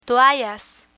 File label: "toallas", Spanish; hotel bathroom